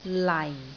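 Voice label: "lai4", Cantonese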